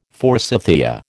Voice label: "forsythia", American